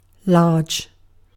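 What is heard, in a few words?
"large", British English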